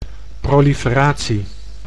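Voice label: "proliferatie", Dutch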